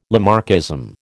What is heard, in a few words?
"Lamarckism", American